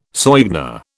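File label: "soigné", British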